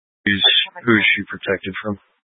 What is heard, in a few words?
Mesa County Sheriff Dispatch